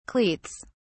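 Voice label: "cleats", English, uk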